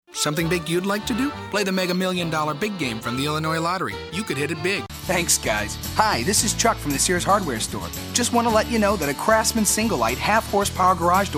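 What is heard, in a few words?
Commercials, voiceover artist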